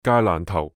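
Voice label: "芥蘭頭", Cantonese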